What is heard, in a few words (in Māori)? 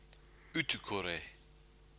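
"utukore", Māori word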